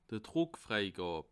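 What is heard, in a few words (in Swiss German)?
"druckfreigab", Bas Rhin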